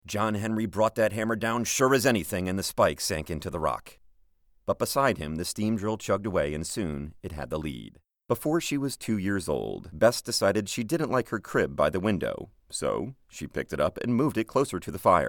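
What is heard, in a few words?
American English audiobook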